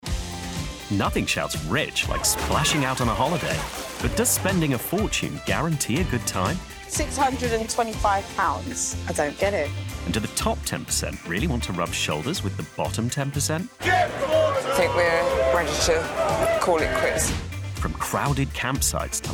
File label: Fun conversational cheeky; Rich Holiday Poor Holiday